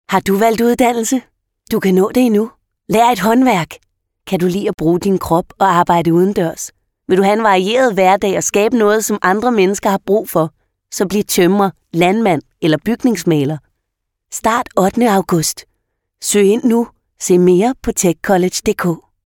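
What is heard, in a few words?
Danish commercial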